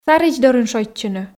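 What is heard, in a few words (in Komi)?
"саридз дорын шойччыны", Komi, female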